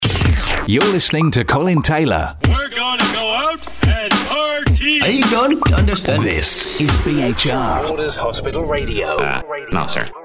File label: Ident